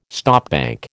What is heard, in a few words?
"stopbank", American